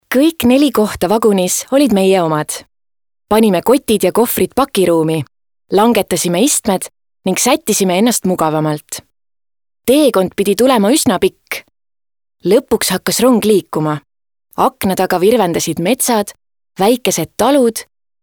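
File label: Estonian female Casual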